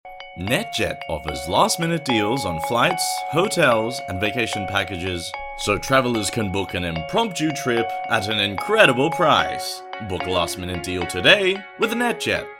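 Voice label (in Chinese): 外籍英男-旅游宣传片; 旅游APP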